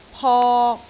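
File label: "pok3", Cantonese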